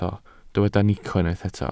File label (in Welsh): "nico", Welsh